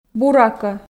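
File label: "буракӧ", Komi, female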